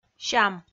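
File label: "сям", Komi, female; kpv audio dict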